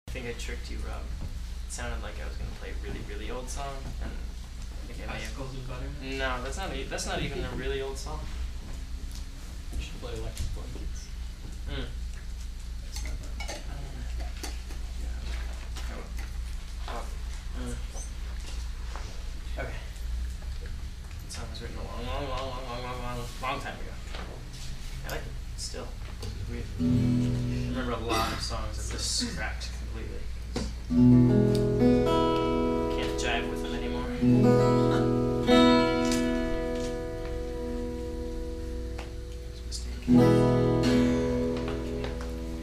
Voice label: talking talking talking, live